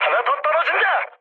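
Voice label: Korean player killed enemy mood med, speech; War Thunder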